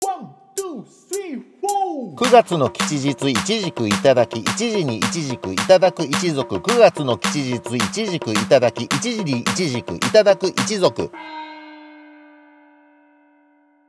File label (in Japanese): 早口言葉リズム（中級編）